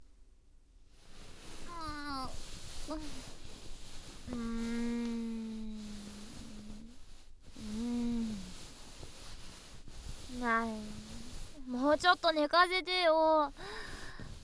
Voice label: 帰還＆レベルアップ 効果音入り, ASMR

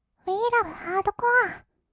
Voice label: うぃーらぶはーどこあ, kawaii voice; 音ゲー

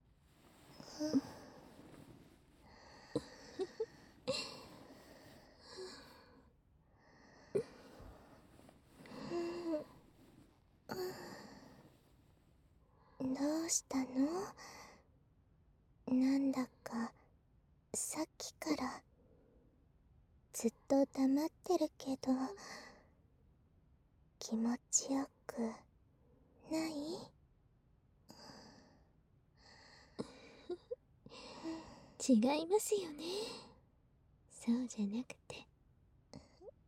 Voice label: ピロートーク; ASMR; 安眠用ピロートーク

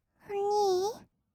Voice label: "おにぃ", kawaii voice; ASMR; 二人称

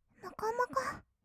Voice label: "もこもこ", kawaii voice; ASMR; 色々なワンショット

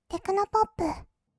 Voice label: テクノポップ, kawaii voice; 1200 kawaii voice!!; 音楽ジャンル

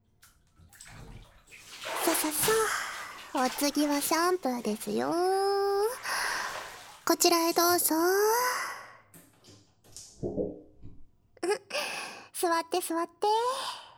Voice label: ぽわぽわボイスでお頭ごしごしASMR♪ ぱちぱちシャンプーヘッドスパ♪

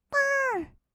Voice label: "ぽーん", kawaii voice; ASMR; 色々なワンショット

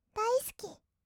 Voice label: "だいすき", kawaii voice; 1200 kawaii voice!!; 色々なワンショット

